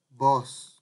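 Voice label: "boss", Nigerian Pidgin, male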